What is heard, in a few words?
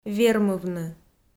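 "вермывны", Komi-Zyrian, female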